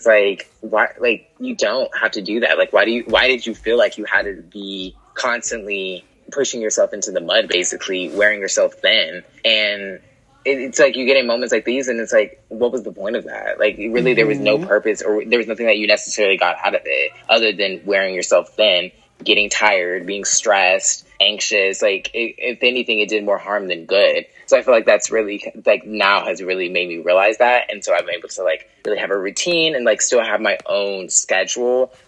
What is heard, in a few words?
Voice Over